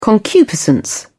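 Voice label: "concupiscence", British English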